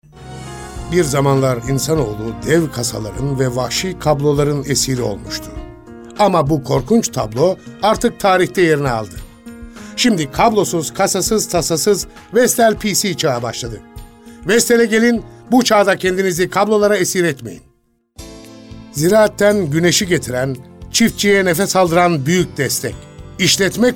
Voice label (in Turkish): ERKEK